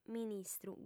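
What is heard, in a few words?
"ministru", female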